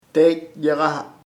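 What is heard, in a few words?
west oeste, Chamacoco word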